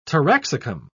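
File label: "taraxacum", British English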